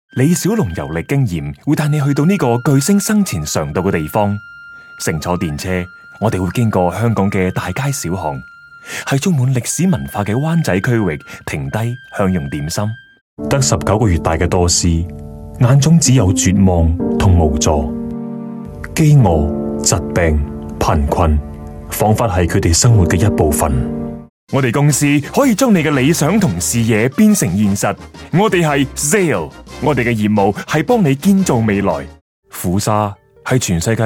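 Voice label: Cantonese, narration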